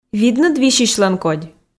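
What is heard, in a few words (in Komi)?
"видныд висьысьлӧн кодь", Komi, female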